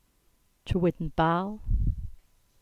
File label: "Trewidden Bal" EN